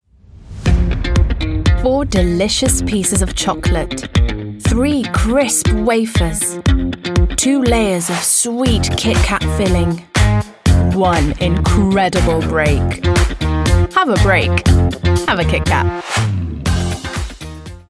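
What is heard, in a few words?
British English, Commercial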